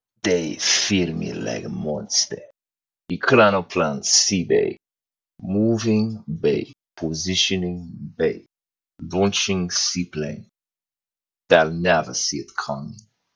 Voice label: ekranoplan voice act